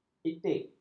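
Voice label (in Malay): "etik", Malay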